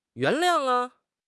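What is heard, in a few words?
"yuan2 liang4", Mandarin Chinese; HSK; HSK4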